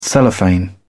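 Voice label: "cellophane", British English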